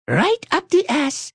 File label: Right Up The Ass, Attitude, Male